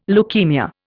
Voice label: "leukaemia", Indian